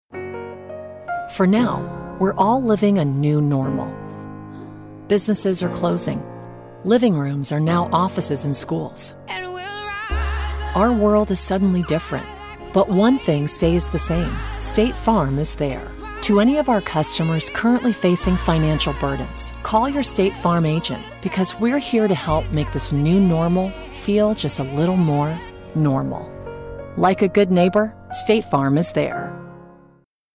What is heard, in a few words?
Voice Actor Commercial; New Normal; State Farm®